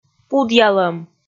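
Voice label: "пудъялӧм", Komi, female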